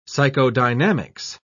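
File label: "psychodynamics", British English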